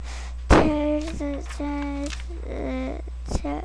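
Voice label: "clock", spoken word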